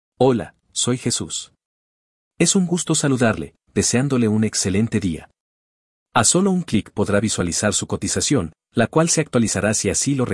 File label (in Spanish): hombre cotiza